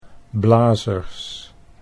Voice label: "blazers", Dutch